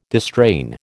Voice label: "distrain", American